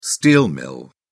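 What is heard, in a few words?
"steel mill", British English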